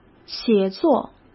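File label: "写作", Mandarin Chinese; hsk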